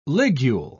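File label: "ligule", English, British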